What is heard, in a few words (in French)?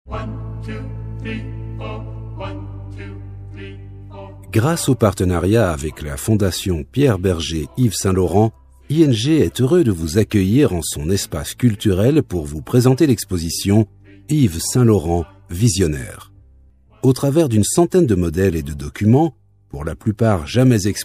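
french Belgian male narration; voice demos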